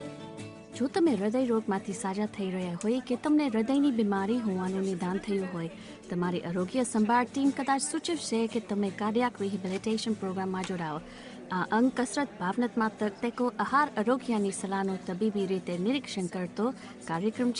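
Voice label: Gujarati, Corporate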